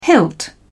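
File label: "hilt", British English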